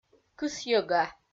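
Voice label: "кысйӧга", Komi-Zyrian, female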